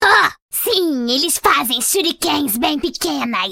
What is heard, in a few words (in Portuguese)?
voice portugues brasile; kennen